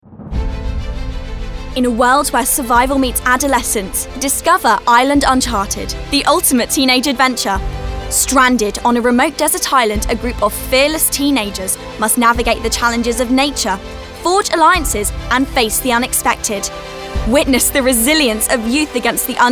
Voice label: narrative